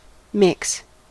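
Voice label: "mix", US English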